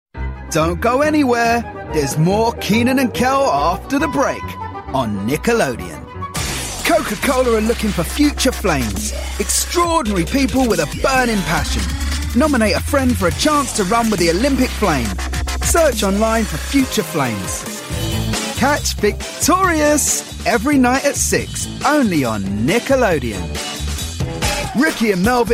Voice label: english british promos

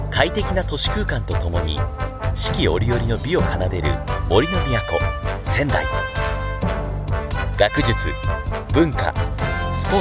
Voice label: japanese corporate videos; japanese voice over talent

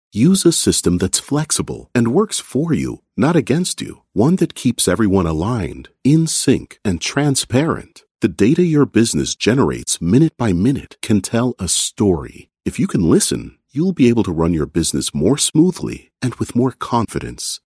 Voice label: english canadian corporate videos

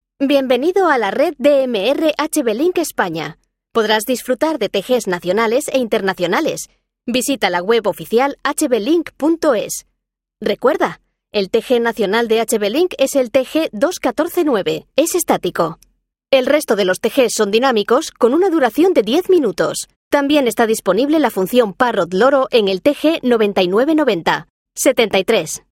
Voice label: spanish ivr

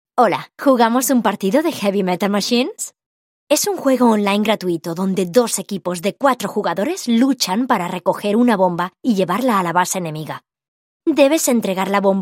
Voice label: spanish movie trailers

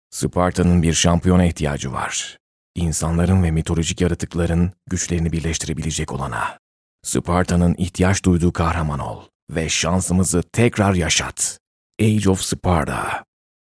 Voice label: turkish video games

